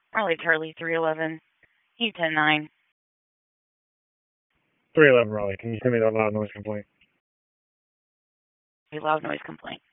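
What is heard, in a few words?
Police District Dispatch; Raleigh Police Northeast District Disp; wake; p25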